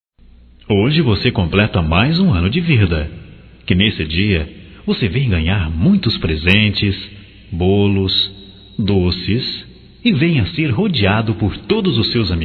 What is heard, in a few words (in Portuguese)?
Aniv infantil, masc; Telemensagens 2017; Aniversarios